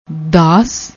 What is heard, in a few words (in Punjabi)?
"dass", Punjabi; ਦਾਸ